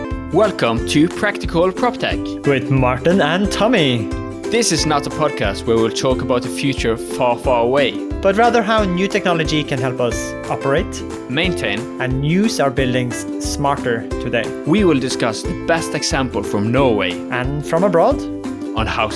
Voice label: intro english